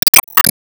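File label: Voice Emo Confirm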